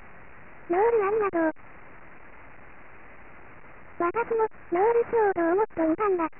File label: speech kids